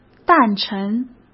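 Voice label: "诞辰", Mandarin Chinese; hsk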